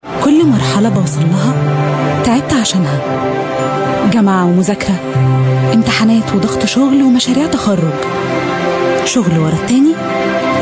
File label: arabic voice over female narrator